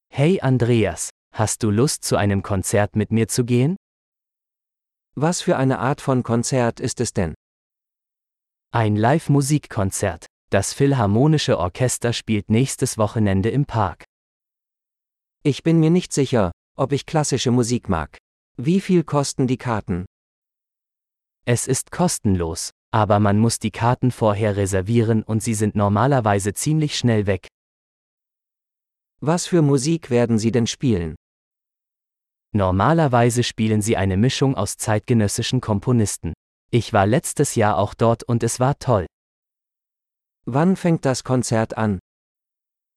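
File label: The Music Concert - Dialogue, German; de-en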